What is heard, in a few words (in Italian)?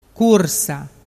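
"cursa", Vernazzese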